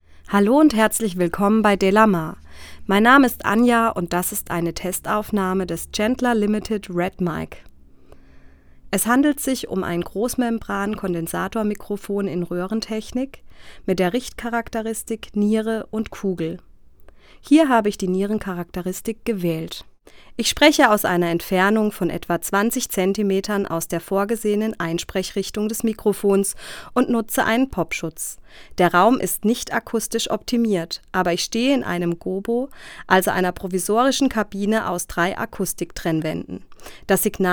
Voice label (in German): sprache f; chandler limited redd microphone test